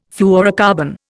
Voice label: "fluorocarbon", Indian